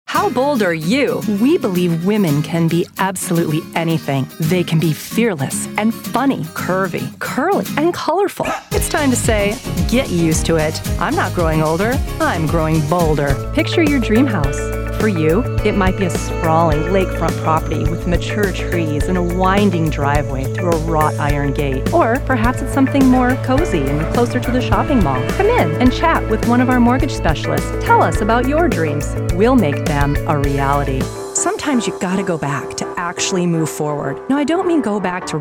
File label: Commercial, Female voices